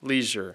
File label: "leisure", English